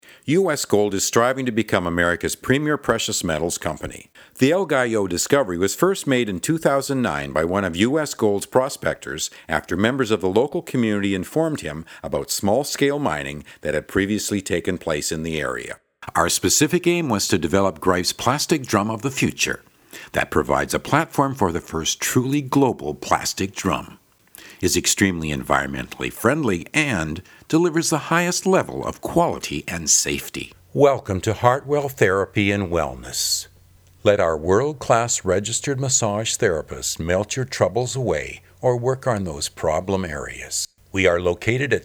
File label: Narration corporate